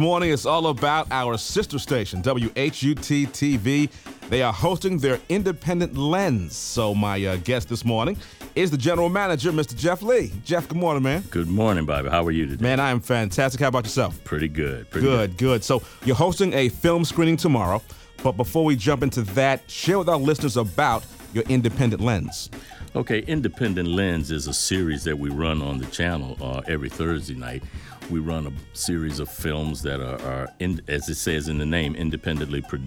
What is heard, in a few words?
Air Check